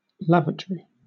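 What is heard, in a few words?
"lavatory", British English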